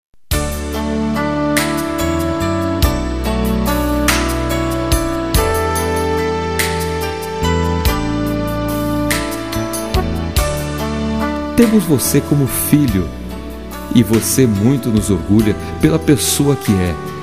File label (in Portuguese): Aniv. de Genro, M